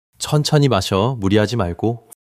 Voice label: joy